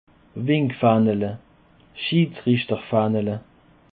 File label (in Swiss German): "winckfaehnele", alsace